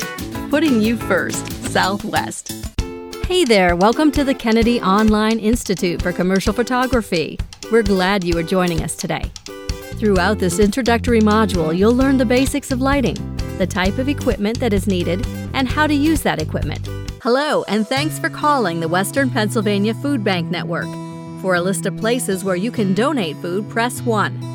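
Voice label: General, American voiceover actor who speaks English with a neutral accent; Various VO Genres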